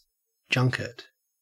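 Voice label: "junket", Australian English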